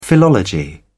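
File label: "philology", British English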